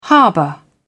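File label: "harbour", British English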